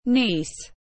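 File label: "Niece", English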